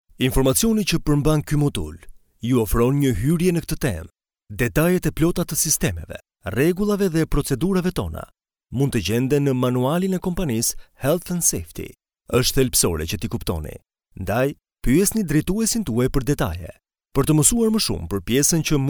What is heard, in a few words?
male albanian voice over narrative